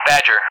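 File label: "Badger", English; Callsign; DCS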